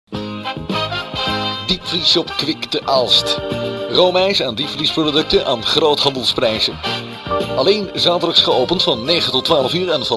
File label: commercial